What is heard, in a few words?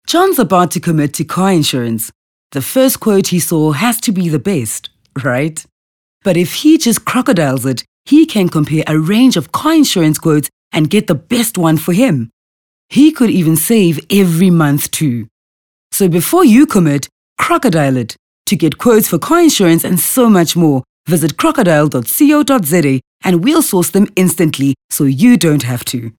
English Conversational